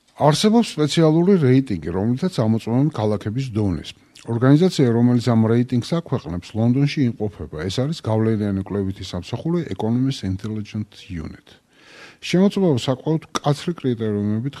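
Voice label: georgian male narration; voice demos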